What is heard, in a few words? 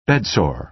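"bedsore", American English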